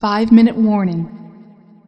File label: 5 minute, female